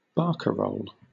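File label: "barcarole", British English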